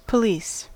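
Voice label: "police", US English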